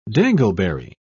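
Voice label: "dangleberry", British English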